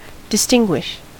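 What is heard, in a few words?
"distinguish", US English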